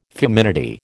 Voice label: "feminity", American